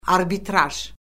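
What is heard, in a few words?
"arbitraj", Azerbaijani; Orfoqrafiya Lüğəti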